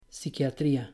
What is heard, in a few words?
"psiquiatría", Spanish